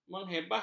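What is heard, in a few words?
"menghebahkan", Malay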